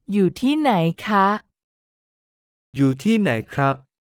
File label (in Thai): "อยู่ที่ไหน", Thai; thai for travelers; essential phrases